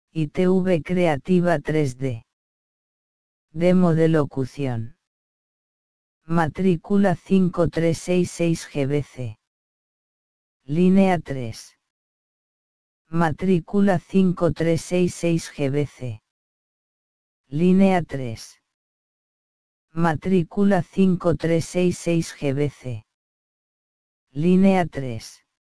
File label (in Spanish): locucion